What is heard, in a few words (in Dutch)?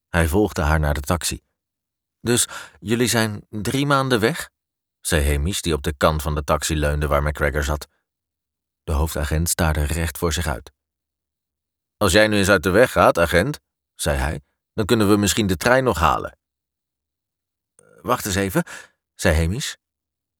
Luisterboek